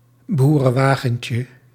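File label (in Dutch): "boerenwagentje", Dutch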